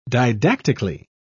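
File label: "didactically", British English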